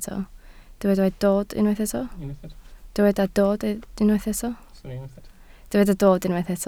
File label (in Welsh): "dod" Welsh